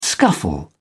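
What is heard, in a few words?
"scuffle", English, British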